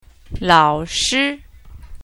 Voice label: teacher, Chinese; lao3 shi1